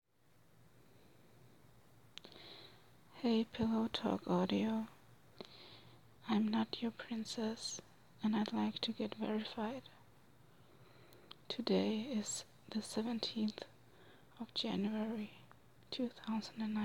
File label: F, my sleepy verification